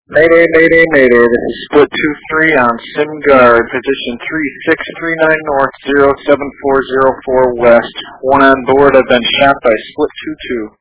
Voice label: May Day Call; Split 23, reported that he had been shot by Split 22. Here is that emergency call.